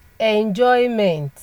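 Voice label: "enjoyment", Nigerian Pidgin, female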